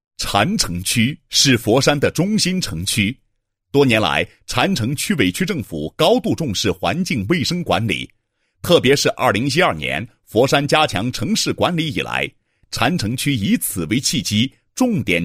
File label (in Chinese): 男 卫生工作规范; 禅城区环境卫生管理作业规范